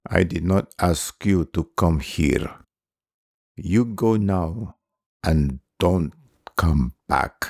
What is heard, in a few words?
Generic Accent